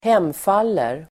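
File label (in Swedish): "hemfaller", Swedish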